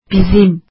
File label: "our", azeri